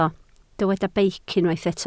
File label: "beic", Welsh